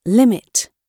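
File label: "limit", British English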